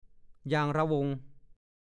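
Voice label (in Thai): "ยางร่ะวง" ภาษาโคราช; ยางวงกลม เส้นเล็ก ๆ ที่ใช้รัดสิ่งของ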